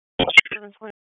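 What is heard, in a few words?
Police Downtown District Dispatch, p25; Raleigh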